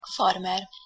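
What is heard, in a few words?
"farmer", Hungarian pronunciation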